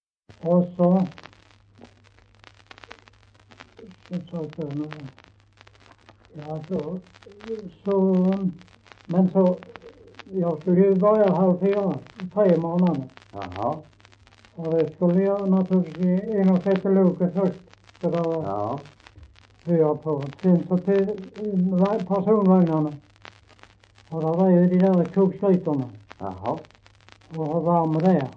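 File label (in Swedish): Hallaröd Skåne, svenska sagor dialekter; Folkminnen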